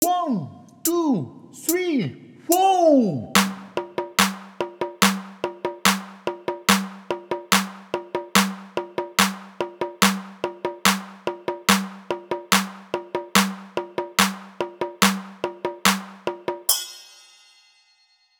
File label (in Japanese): 早口言葉リズム（初級編-ゆっくり）